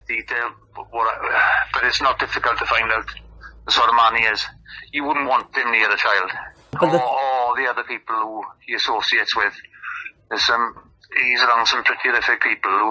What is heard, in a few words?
phonecall